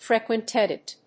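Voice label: "frequentative", English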